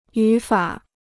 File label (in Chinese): "语法", Chinese